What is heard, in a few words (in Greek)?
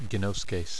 "ginwskei", Greek